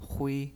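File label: "hui", Mandarin Chinese, first tone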